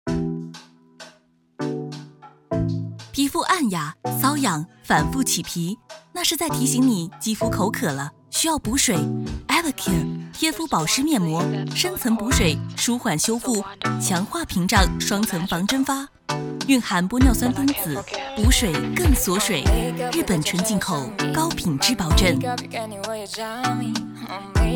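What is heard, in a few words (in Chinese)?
化妆品广告青春时尚; 化妆品独家广告青春时尚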